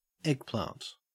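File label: "eggplant", Australian English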